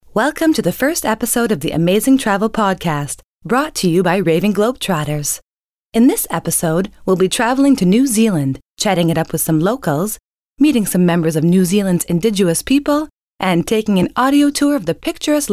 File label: travel podcost